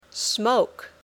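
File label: "smoke", English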